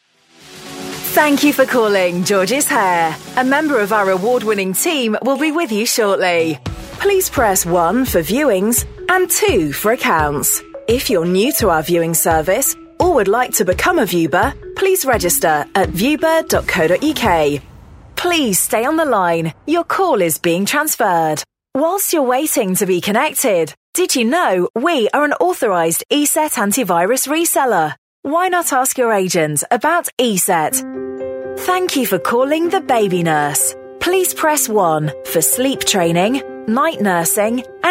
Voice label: on-hold